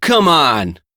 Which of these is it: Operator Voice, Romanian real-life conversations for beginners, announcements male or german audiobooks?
Operator Voice